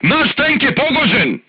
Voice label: voice message player tank hit, crew tanks serbian

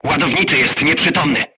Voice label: voice message charger contused, crew tanks polish

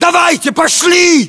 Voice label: order move follow, ru; localized english

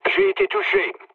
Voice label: aircraft damaged mood low, speech fr; War Thunder

